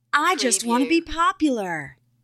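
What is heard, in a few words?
Female I Wanna Be Popular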